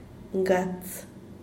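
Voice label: "ngats", Zapotec, Díaz Ordaz; amarillo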